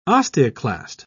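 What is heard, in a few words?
"osteoclast", British English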